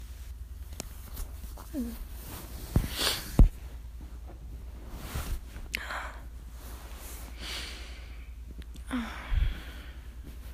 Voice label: Trying to take a nap, cuddles, birds in the background, whispery, sleepy voice